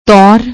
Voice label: "tour", Punjabi; ਤੌਰ